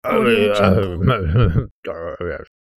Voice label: cartoon mumbling